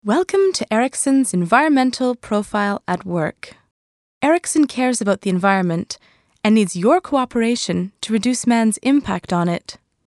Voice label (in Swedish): amerikansk kvinna